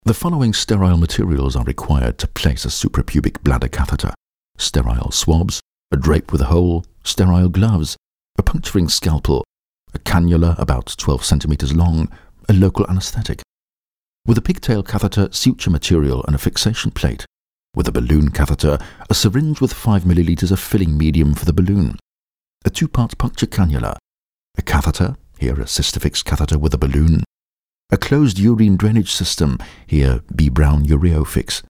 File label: e learning eng